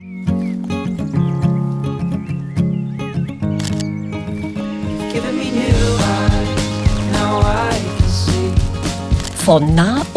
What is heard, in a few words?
SPOT WEB DE; BALADE